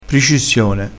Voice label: "pricissione", crespinese

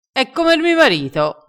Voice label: "prende r sale", crespinese

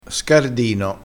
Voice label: "scardino", crespinese

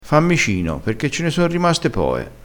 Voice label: "a micino", Crespinese

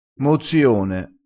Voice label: "mozzione", crespinese

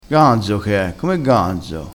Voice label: "ganzo", crespinese

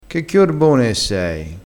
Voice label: "chiorbone", crespinese

